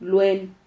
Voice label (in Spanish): "luen", Silabas